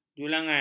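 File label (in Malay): "julangan", Malay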